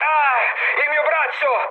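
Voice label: Italian damaged pilot mood low, speech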